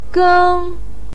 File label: "geng1", Chinese pinyin voice